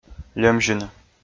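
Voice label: "льӧмзьыны" Komi, male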